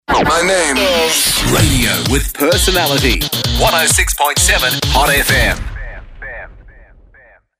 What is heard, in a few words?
IMAGING; HOT FM AU